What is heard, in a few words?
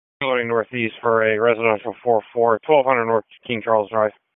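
Raleigh Police Northeast District Dispatch; p25; wake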